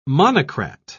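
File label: "monocrat", English, British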